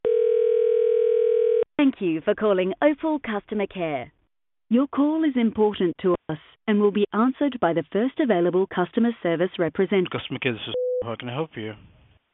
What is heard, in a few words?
customer care answer